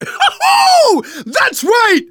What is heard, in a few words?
taunt, survivor voice; manager; Left 4 Dead 2; left4dead2 dlc2